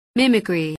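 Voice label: "mimicry", English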